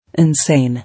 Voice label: "Insane", English